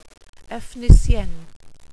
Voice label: "efnisien", welsh pronouciations; Wales/welsh names